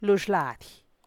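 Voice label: rug fringe, Teotitlán del Valle Zapotec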